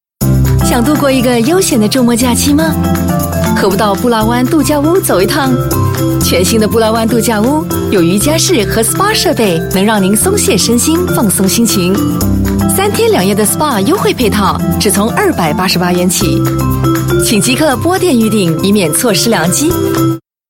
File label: Mandarin, zh-simplified